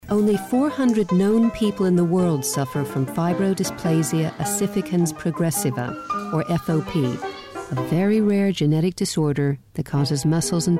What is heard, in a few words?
Narrative